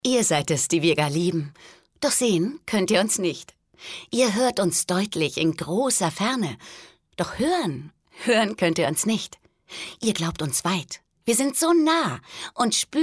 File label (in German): froehlich, frauen; sprecher